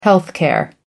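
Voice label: "health care", British English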